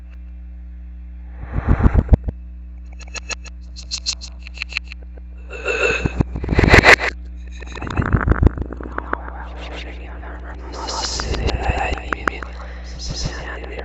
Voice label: Whisper